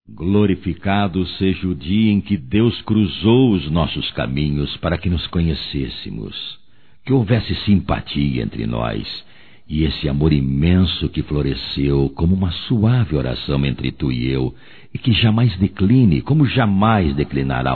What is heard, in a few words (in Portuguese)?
Aniversário Namorada Masculino; Aniversarios; Telemensagens 2017